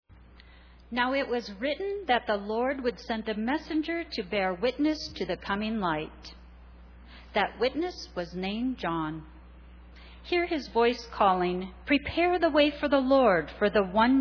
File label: Narration; Cantata